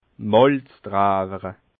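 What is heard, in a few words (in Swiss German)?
"malzdrewere", Alsatian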